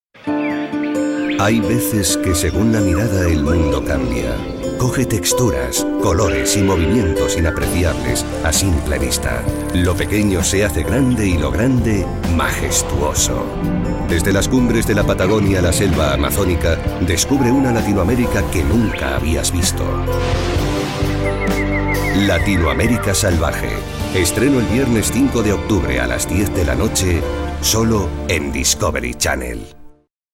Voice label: Male Spanish Euro

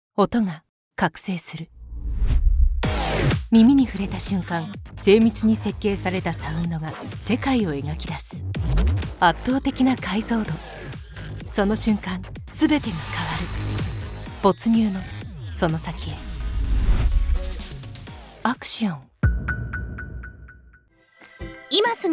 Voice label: Female Japanese

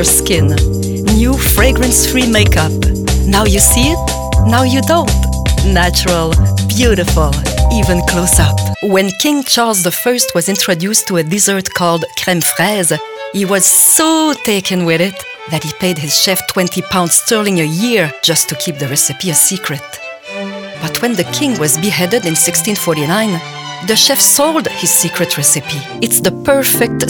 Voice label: Female Eng French Accent; French Canadian; French Canadian Voice Talent

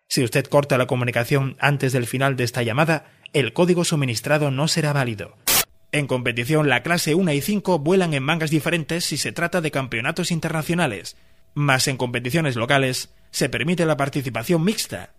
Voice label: Male Spanish Euro

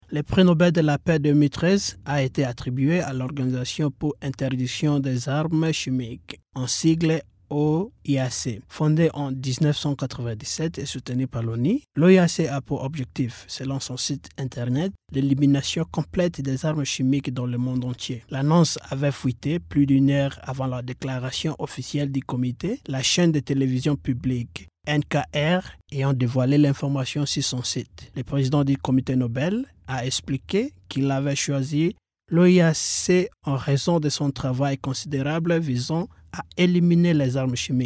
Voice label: MALE FRENCH VOICE OVER SAMPLE

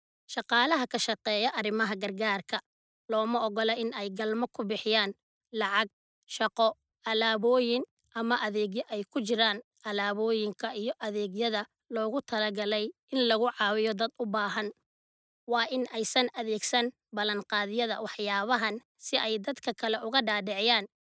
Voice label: Female Somali

